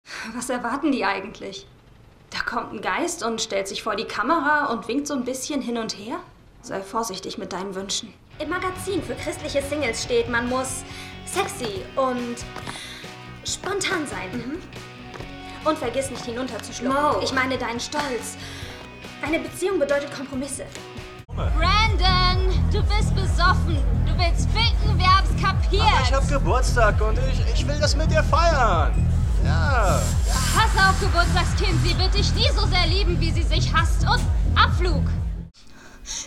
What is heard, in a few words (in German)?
Synchron Short